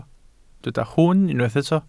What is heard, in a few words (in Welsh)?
"hwn" Welsh